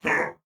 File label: Attack, Corpus Venus Male